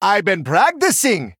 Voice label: Male Troll Attack